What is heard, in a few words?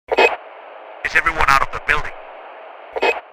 Firefighter radio chatter is everyone out of the building